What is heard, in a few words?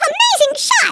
"Perfect", American; Speech; Worms Armageddon